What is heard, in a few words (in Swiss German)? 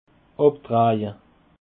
"abdreje", alsace